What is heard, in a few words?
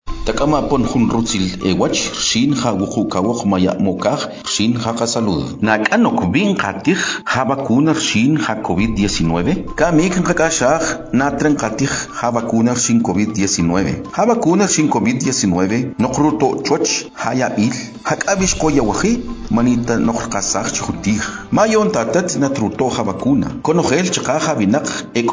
Spot Tzutujil